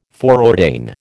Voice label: "foreordain", American